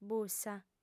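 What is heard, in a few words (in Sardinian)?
"bussa", female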